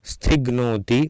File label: "stighnooti", Sanskrit